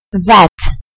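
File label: "vet", British English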